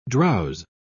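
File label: "drowse", British English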